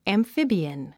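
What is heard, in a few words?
"amphibian", English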